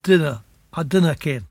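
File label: divna: ah divna ken, Cromarty Fishertoun dialect; [divnna: ah divnna ken]; I don’t know; fishertoun dictionary; cromartymuseum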